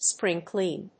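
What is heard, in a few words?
"spring-clean", English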